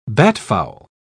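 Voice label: "batfowl", British English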